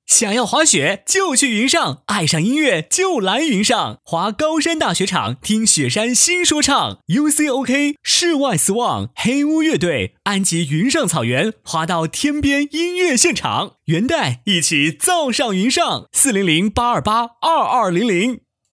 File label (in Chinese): 男 广告 激情活动; 云上想要滑雪